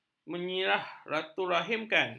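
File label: "menyilaturahimkan", Malay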